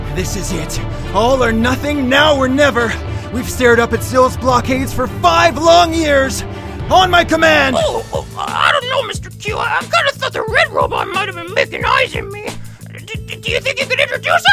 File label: Animation VO